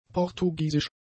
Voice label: "portugiesisch", German; adjectives

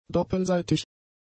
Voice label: "doppelseitig", German; adjectives

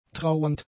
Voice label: "trauernd", German; adjectives

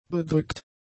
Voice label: "bedrückt", German; adjectives

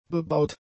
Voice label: "bebaut", German; adjectives

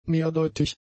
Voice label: "mehrdeutig", German; adjectives

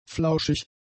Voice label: "flauschig", German; Adjective; fleecy; soft; adjectives

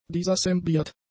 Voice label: "disassembliert", German; adjectives

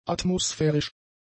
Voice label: "atmosphärisch", German; adjectives

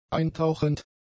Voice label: "eintauchend", german; adjectives

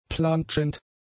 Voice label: "plantschend", German; adjectives

